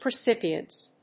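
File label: "percipience", English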